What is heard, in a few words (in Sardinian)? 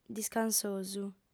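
"discansosu", female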